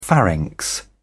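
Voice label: "pharynx", British English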